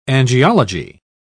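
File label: "angiology", British English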